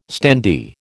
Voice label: "standee", American